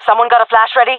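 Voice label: request flash, female voice; player vo jungle fem; Counter Strike Model Pack; CS:GO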